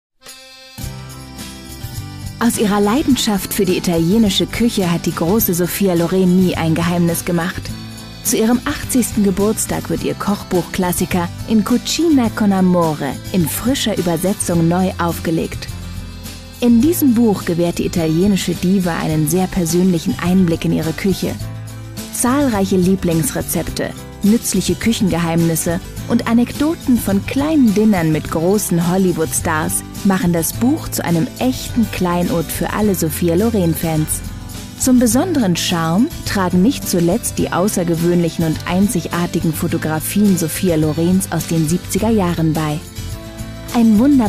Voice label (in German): werbung, deutsch frauen